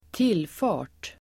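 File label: "tillfart", Swedish; Uttal: [²t'il:fa:r t]; approach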